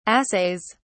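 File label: "assays", British English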